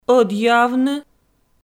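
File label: "ӧдйӧавны", Komi, female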